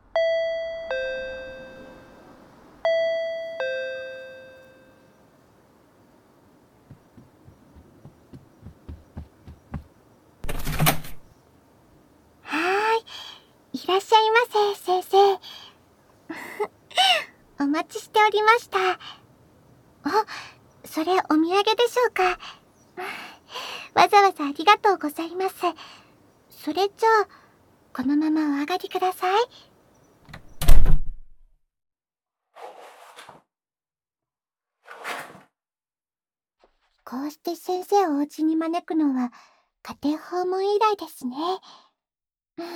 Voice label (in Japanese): 囁き, ASMR; 隠し撮りの写真をオカズに……精子搾り取らせて頂きます♪（囁きオナホコキ）